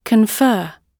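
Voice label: "confer", British English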